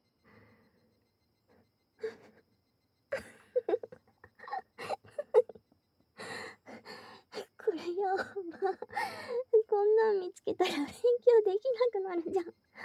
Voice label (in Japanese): もぞもぞフォーリー, ASMR; ベタあまもぞもぞはだかそいねエッチ♪; センパイ頑張れ